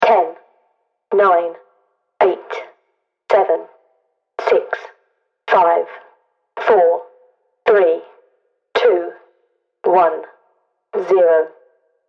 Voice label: Radio Countdown British Female Voice; Royalty free human voice sound effect - excellent spoken word sfx for multimedia productions!; Radio Voice Sound Effects

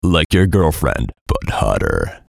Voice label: VOICE COMICAL; Like Your Girlfriend; Radio Voice; Blastwave

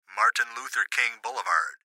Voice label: Gps Voice, Male; Martin Luther King Blvd; Recorded Voice; Blastwave

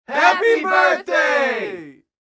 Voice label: Crowd Happy Birthday, Small party crowd saying; Party Sound Effects; Lynne Music

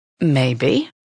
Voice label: "Maybe" Female, Exclamation

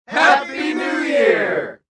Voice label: Crowd Happy New Year, Small party crowd saying; Party Sound Effects; Lynne Music

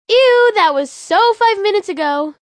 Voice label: So Five Minutes Ago, Attitude, Female, child

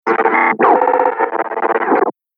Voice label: Robot Mumbo Jumbo, sci-fi sound effect; Robot Sound Effects

